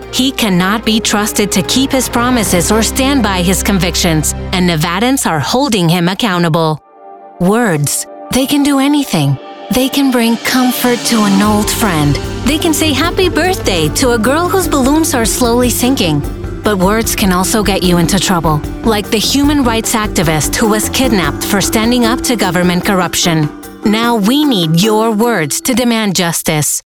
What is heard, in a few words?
english political ads, mexican spanish voice actors; zweisprachiger Synchronsprecher in Englisch und Spanisch; Politische Anzeigen